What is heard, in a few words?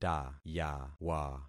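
"tayawa", concatenated syllables; Affixing experiment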